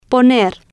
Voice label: "poner", Spanish